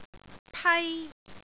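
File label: "pai1", Cantonese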